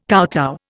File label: "kowtow", Indian